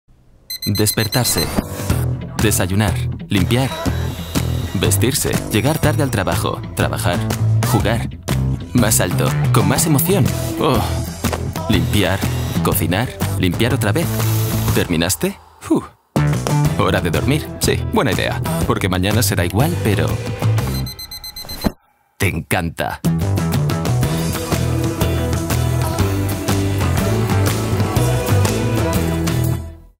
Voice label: tv spot